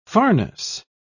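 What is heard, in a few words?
"farness", British English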